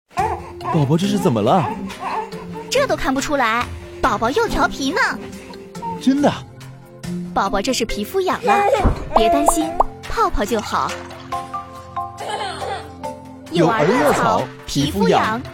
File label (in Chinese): 广告人物; 慕白和西米; 儿乐草